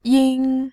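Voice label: "yin", Mandarin Chinese, tone 1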